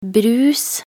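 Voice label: "brus", Norwegian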